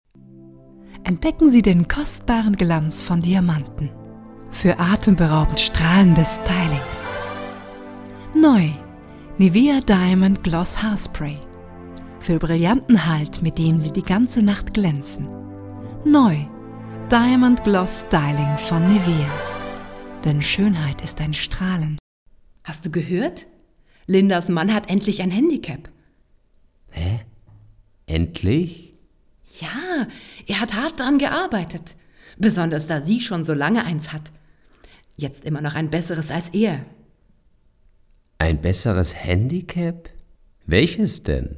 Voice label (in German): Werbung Mix